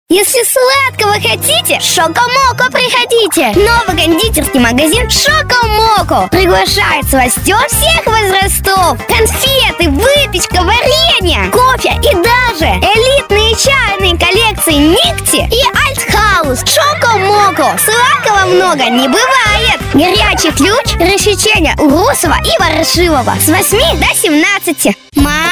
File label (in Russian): devochka 9 let